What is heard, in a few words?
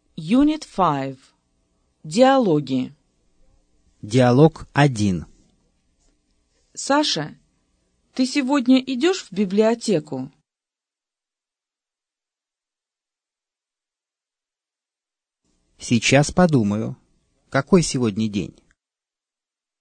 dialog, russian